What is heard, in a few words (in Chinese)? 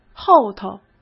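"后头", Mandarin Chinese; chinese-flashcards; hsk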